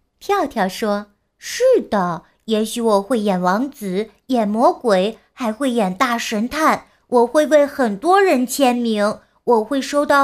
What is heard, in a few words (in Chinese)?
女 角色模仿 小男童